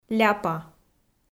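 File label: "ляпа" Komi, female; kpv audio dict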